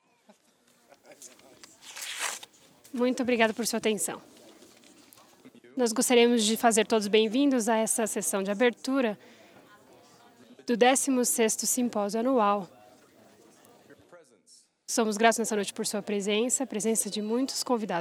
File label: Portuguese; iclrs symposium 2009